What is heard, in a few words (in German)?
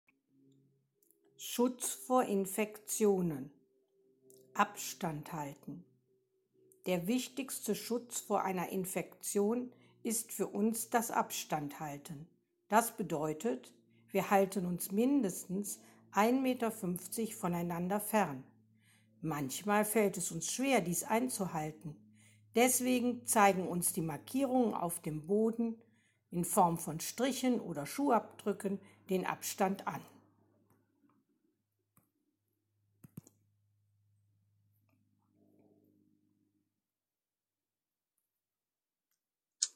Deutsch